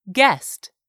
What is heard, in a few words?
"GUEST", english; 3000 words